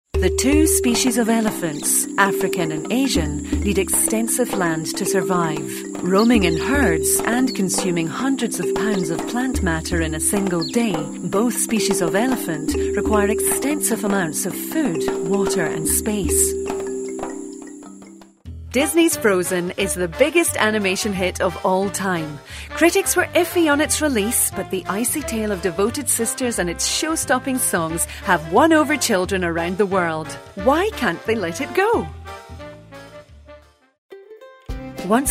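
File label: narrative, female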